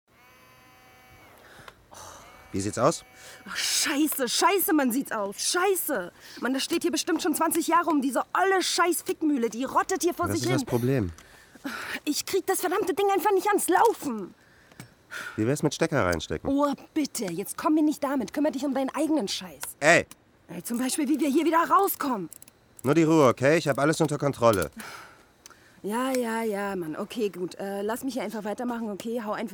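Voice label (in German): Stimmprobe Hörspiel; Glashaus; LOFT VOICES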